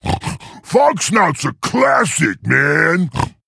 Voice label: Male Quilboar Play